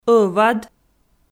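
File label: "ӧвад", Komi, female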